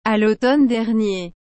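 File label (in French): "à l'automne dernier", French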